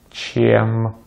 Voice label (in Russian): "чем", Russian; Vocales tónicas en ruso; easypronunciation